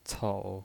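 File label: "cou", Mandarin Chinese, third tone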